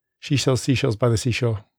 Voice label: english seashells fast; phon lab